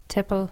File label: "tipple", english